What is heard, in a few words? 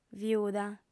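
"viuda", Sardinian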